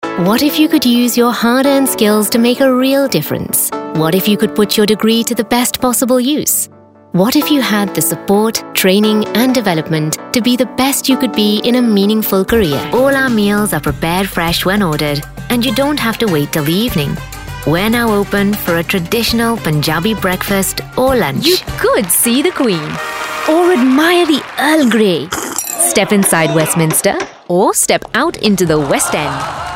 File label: Indian Accent